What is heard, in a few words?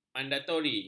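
"mandatori", Malay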